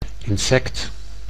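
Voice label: "insect", Dutch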